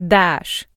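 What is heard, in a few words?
"dáš", Slovak; DP-Logopedicka-Platforma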